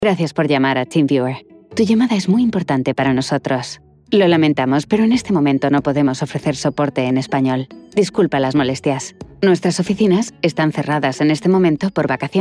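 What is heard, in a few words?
Spanish, female, IVR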